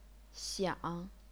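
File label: "xiang", chinese pinyin, third tone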